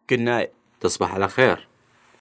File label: Good night, tis-bah 'aala khair, Iraqi; تصبح على خير